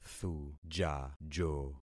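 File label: "thujajo", concatenated syllables; Affixing experiment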